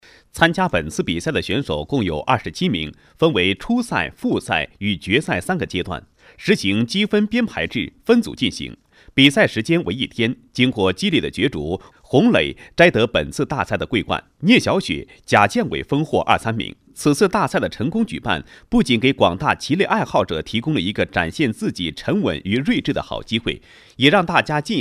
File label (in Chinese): 男 高音 新闻; 国语男声配音